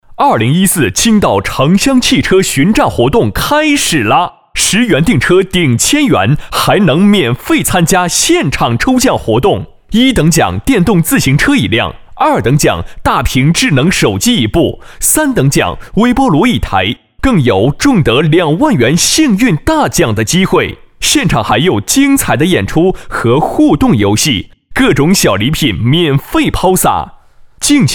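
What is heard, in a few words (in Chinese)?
男国大音-活动促销; 青岛汽车巡展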